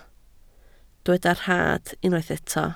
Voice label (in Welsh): "rhad", Welsh